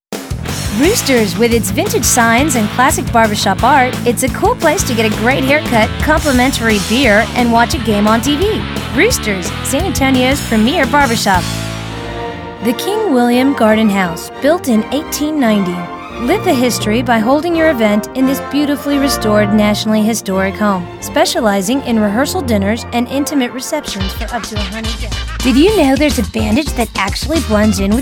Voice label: English, english females

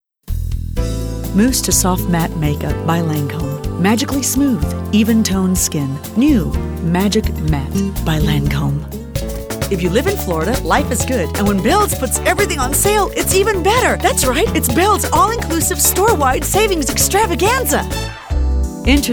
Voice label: english females

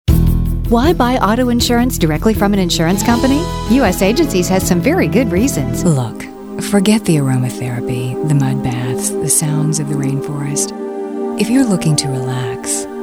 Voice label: english females

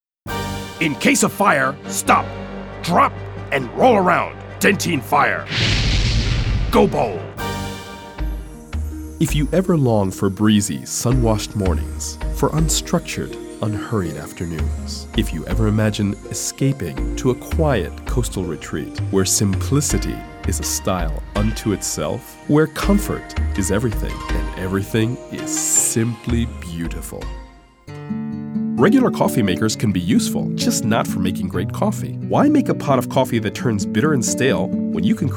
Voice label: english males

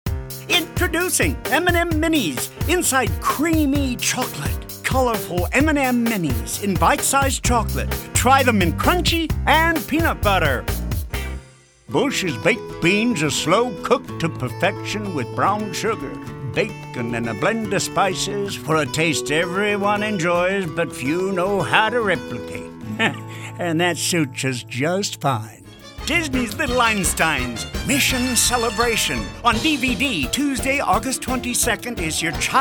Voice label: English, english males

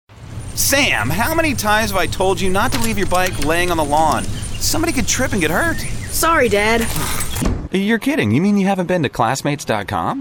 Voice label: male English

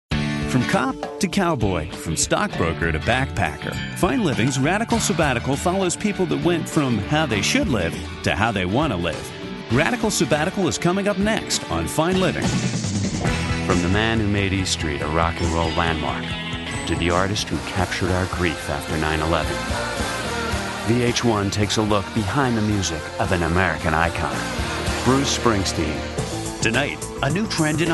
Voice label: English, english males

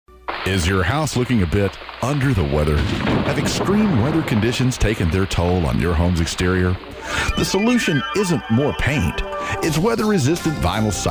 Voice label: English, english males

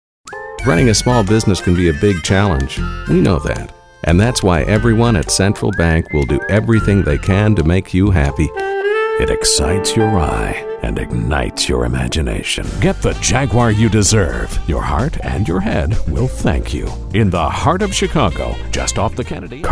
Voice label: English, english males

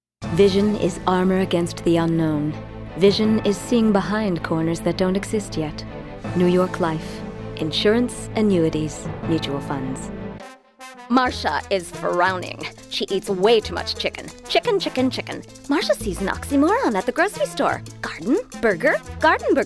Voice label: English, english females

